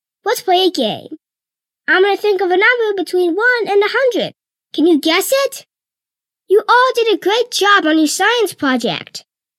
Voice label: educational